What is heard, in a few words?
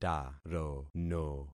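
"tarono", concatenated syllables; Affixing experiment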